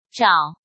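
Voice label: "Zhǎo", Chinese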